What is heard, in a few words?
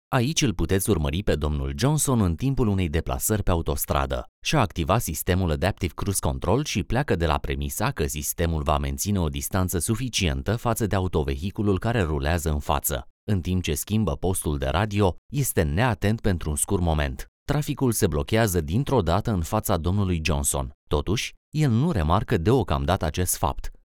Explanation Video